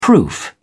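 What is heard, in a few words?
"proof", British English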